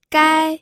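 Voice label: "gai", pinyin, first tone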